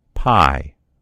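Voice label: "pie", American English; gcompris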